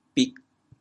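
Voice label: "big4", Teochew, chaoyang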